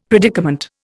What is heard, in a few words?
"predicament", Indian; Dictionary